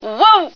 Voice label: female oh yeah